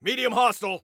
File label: Warn, Soldier Male; Soldier Male 02